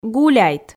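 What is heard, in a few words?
"гуляйт", Komi, female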